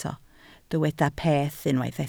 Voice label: "peth", Welsh